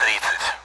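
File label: Speech Sound Russian Common AWACS Numbers; DCS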